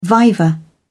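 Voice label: "viva", British English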